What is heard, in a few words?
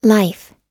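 "life", US English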